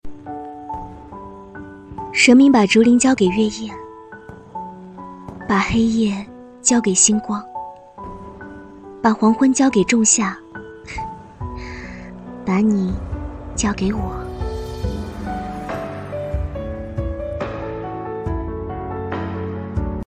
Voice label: 古风; 神明把竹林交给月夜

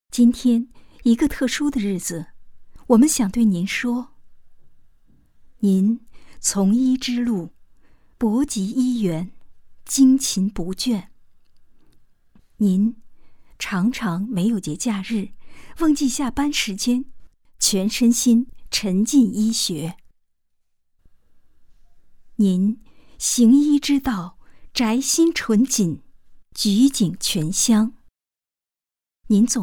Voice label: 诗歌 祝老师生日快乐

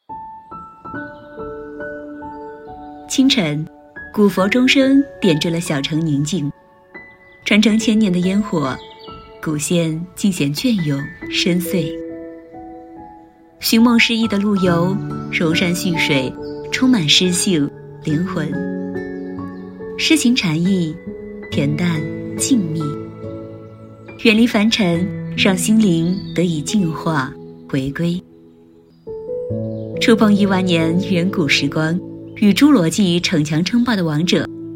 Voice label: 宣传片

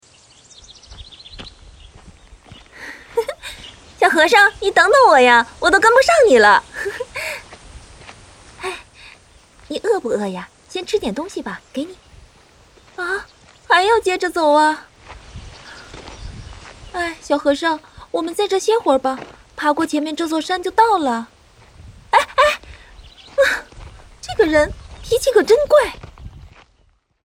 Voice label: 少女配音年轻活泼

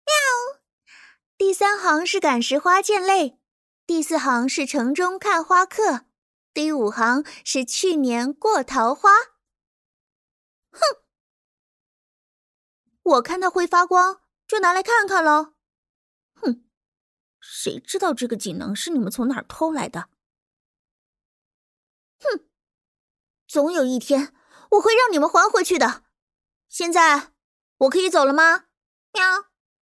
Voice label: 广播剧少女角色音

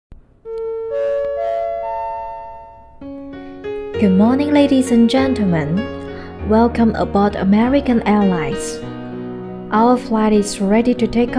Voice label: 纯英文播报

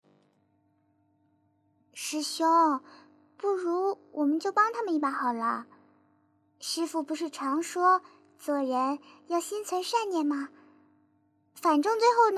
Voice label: 少女音

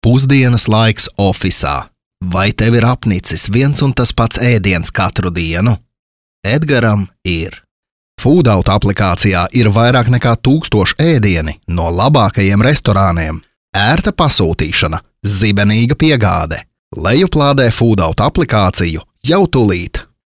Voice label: 拉脱维亚语男样音

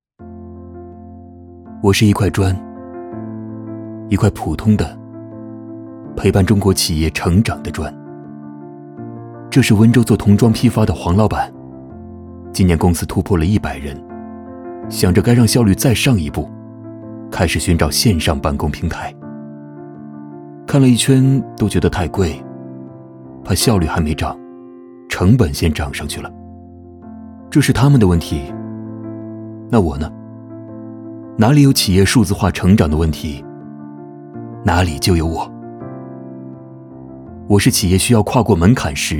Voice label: 广告独白; 一块砖

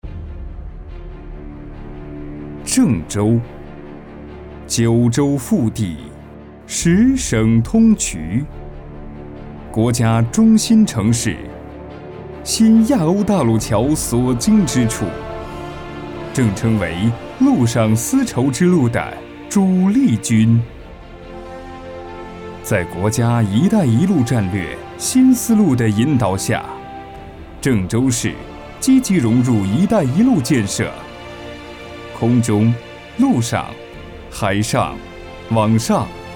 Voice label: 大气宣传

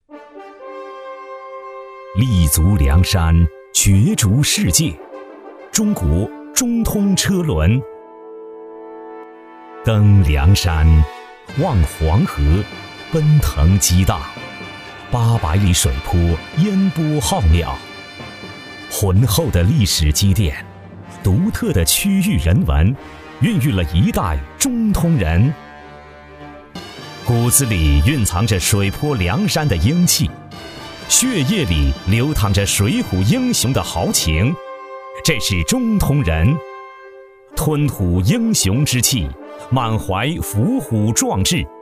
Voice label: 大气 恢弘; 中通车轮

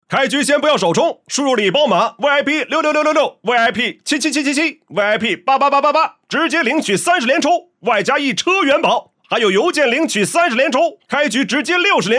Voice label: 广告配游戏类

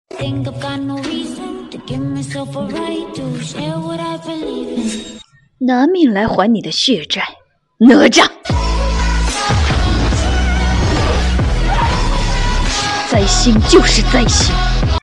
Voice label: 配音片段; 哪吒重生彩云

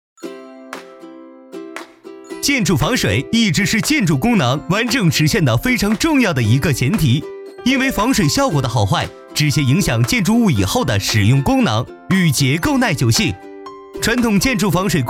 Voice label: 动画宣传讲解

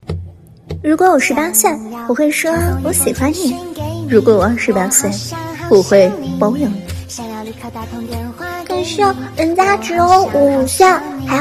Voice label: 萝莉御姐少女

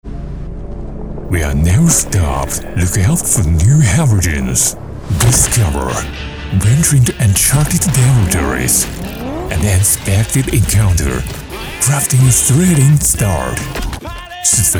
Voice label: 越野车广告

